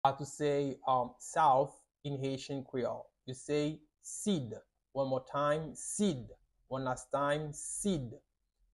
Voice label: "Sid" Haitian Creole pronunciation by a native Haitian Creole teacher; How to say South in Haitian Creole